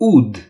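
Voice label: "wet", Romanian; gcompris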